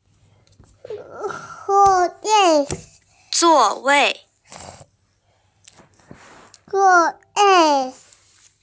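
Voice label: "zuo4wei4", Mandarin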